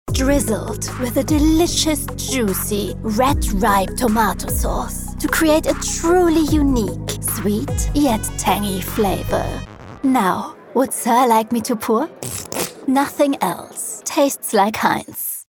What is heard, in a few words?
Commercial UK; voiceover; HEINZ